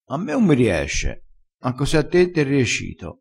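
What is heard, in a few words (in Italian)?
"riesci", vocabolario dialettale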